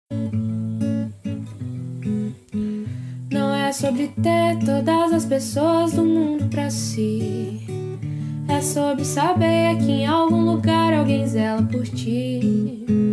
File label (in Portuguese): ANIV PRIMA PL MASC, telemensagem